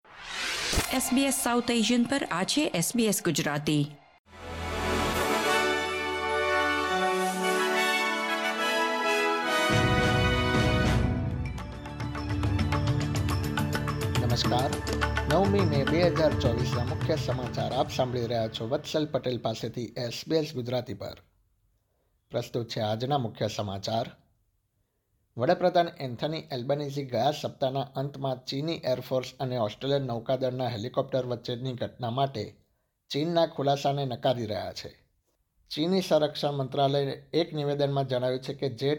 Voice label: gujarati newsflash; SBS Gujarati News Bulletin 9 May 2024